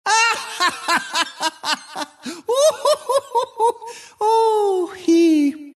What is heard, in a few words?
monkey laugh; dota; monkey king